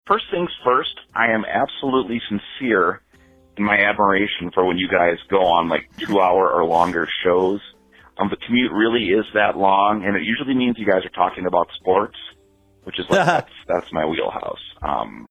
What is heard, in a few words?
voicemail message